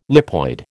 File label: "lipoid", American